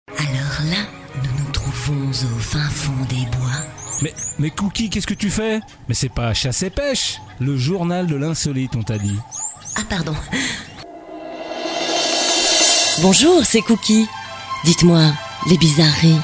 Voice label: Voice Over French